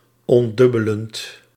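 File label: "ontdubbelend", Dutch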